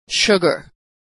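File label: "sugar", American English; vowel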